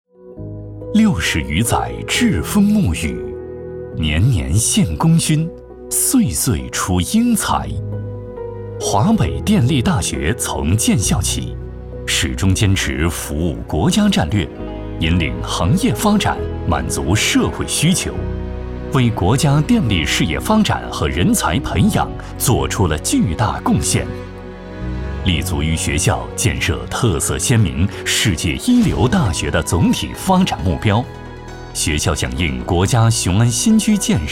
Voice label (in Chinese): 男 宣传片; 华北电力大学